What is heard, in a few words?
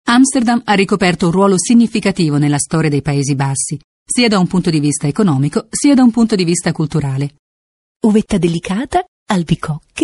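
Italian, female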